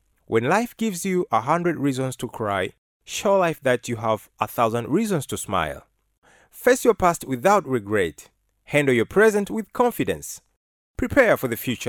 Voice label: male swahili voice over english